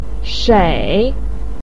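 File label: "shei", Mandarin Chinese, third tone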